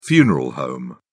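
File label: "funeral home", British English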